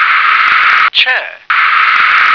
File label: "chair", English